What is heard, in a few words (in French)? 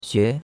"xue2", chinois; Cours-de-Chinois